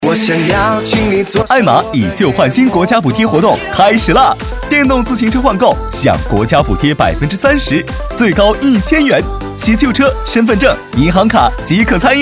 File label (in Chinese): 男 促销; 艾玛